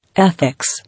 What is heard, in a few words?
"Ethics", English